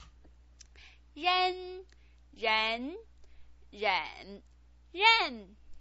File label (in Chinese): "ren", pinyin